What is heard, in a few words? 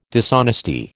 "dishonesty", American